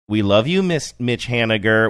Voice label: saying we love you sing songy; miss mitch haniger